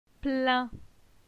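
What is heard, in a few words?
"Plin", French; phonetique; la syllabe in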